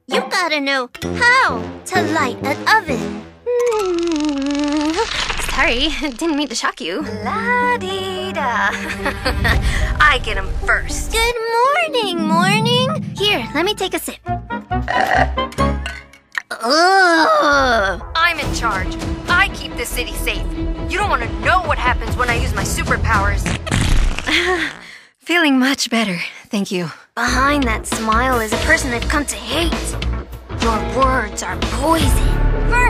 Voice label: Animation, Female Voice Over

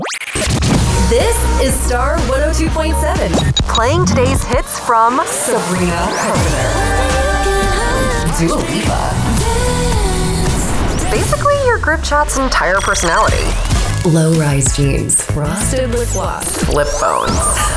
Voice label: Radio Imaging, Female Voice Over

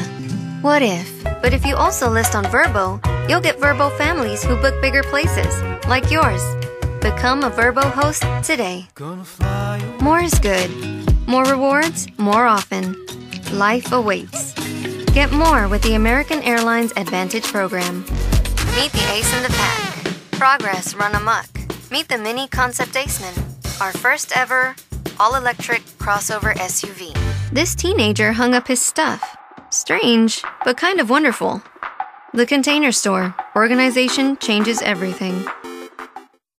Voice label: Commercial, Female Voice Over

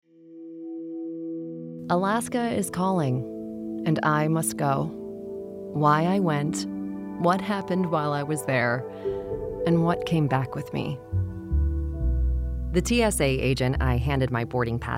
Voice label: Narration, Female Voice Over

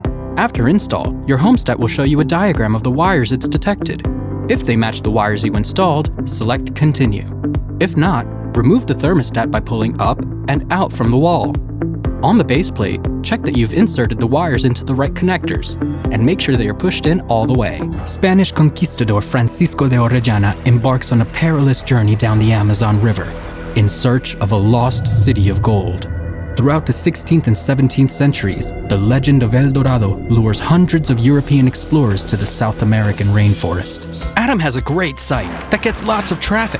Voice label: Corporate, Voice Over

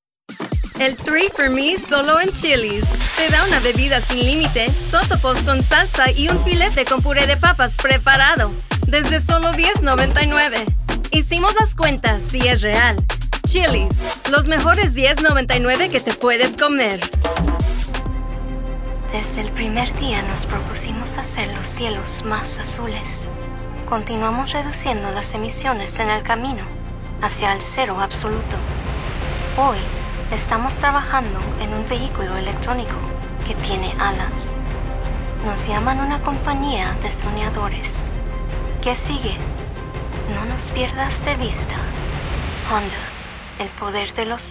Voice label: SPANISH Commercial, Female Voice Over

